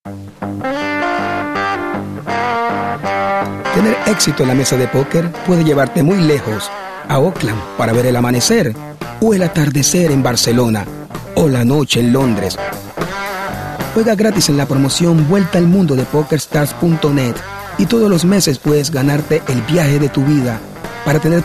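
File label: Latin American; Spanish-Latin (Argentina), Male, Studio, 20s-30s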